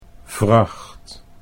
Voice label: "vracht", Dutch

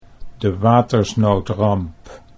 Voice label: "de watersnoodramp", Dutch

